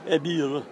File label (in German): Dialekte